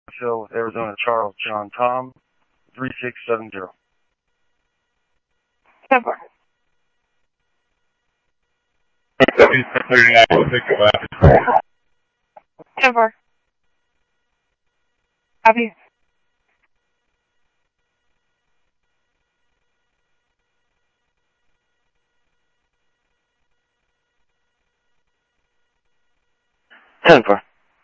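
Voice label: police radio dispatch, Scottsdale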